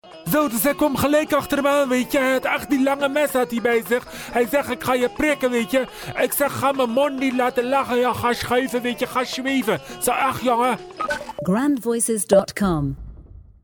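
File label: accent male MAROKKAANS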